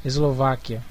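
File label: "slovakia", Brazilian Portuguese; gcompris; geography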